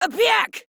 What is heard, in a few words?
Attack, Female; Warframe; Modular Corpus Female; Battle Barks; en